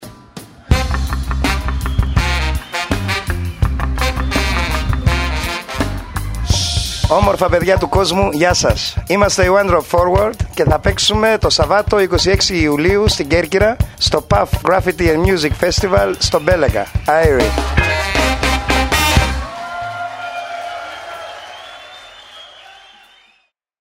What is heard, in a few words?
SPOT, radio; ONE DROP